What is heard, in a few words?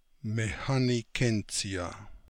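"Mecanogentsia", pronunciations; Fourth Way; Beelzebub pronounciations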